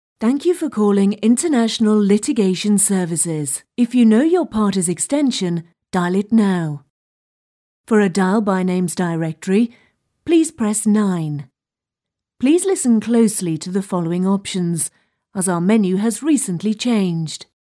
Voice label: IVR On Hold messages